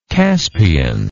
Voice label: "caspian", English

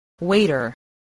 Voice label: "waiter", spelling

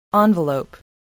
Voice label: "envelope", voice, english

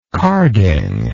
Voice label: "carding", English

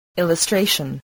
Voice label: "illustration", voice spelling

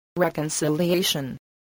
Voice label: "reconciliation", voice, english

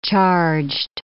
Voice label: "charged", English

